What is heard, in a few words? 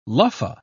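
"luffa", British English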